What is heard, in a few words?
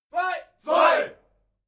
military shout german two